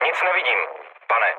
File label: chat, speech Czech; War Thunder